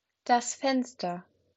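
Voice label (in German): "Fenster", German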